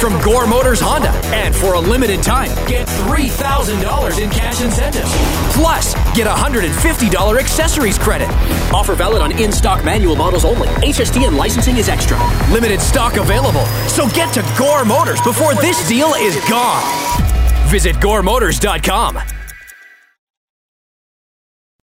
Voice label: Civic Promo, voiceover